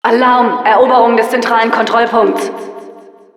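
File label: Announcer alert center control being captured, German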